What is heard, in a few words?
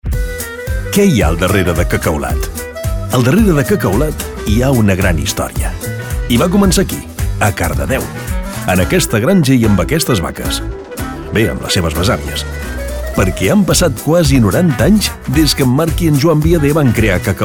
catalan corporate videos